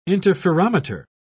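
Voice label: "interferometer", English, British English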